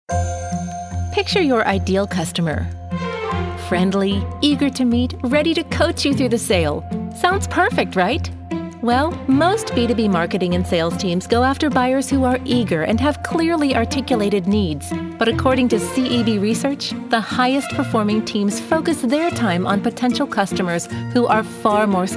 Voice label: 30s 40s Female eLearning

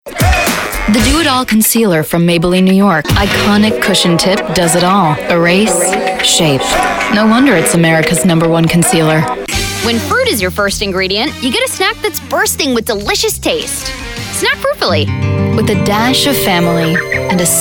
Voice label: 20s30s Female Commercial

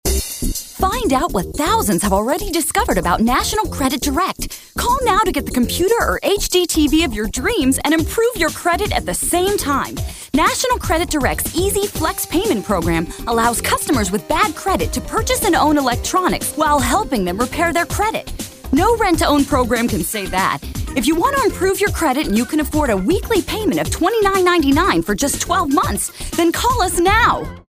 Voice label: 20s Female Commercial

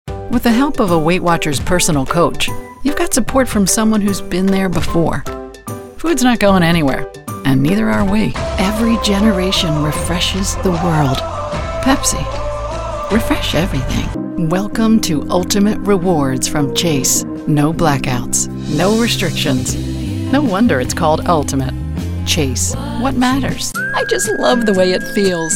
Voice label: 40s50s Female Commercial

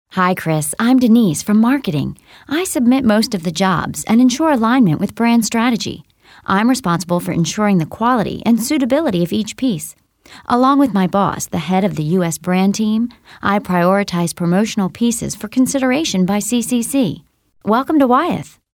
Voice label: mid 30s Female

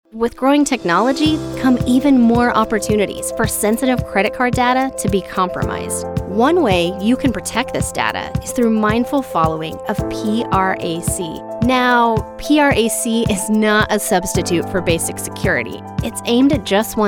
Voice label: 20s30s Female eLearning

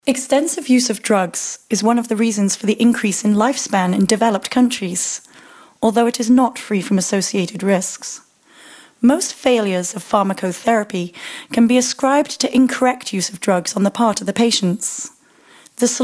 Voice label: 20s 30s Female British Narration

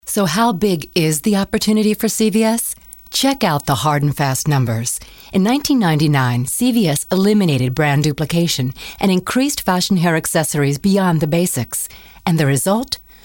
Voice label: 30s 40s Female Narration

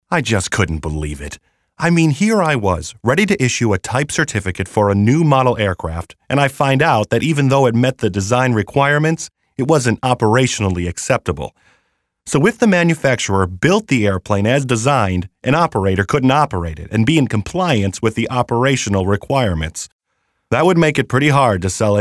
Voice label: 30s Male Narration

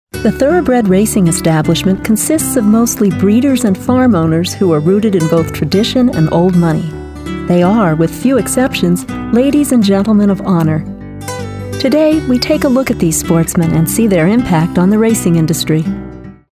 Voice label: 30s 40s Female Narration

